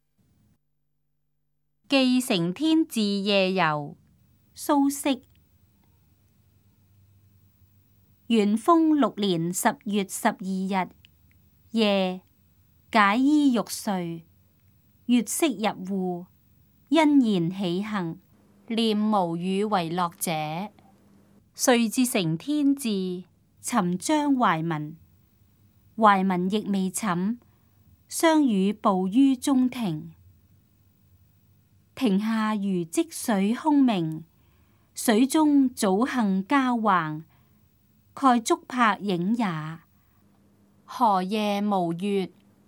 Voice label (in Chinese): cantonese; 記承天寺夜遊; 粵語